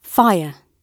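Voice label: "fire", British English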